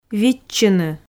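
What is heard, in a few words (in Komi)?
"видчыны", Komi, female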